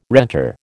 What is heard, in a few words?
"renter", American